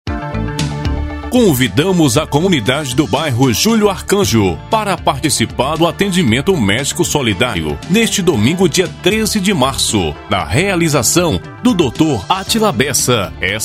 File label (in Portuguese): spot medico solidário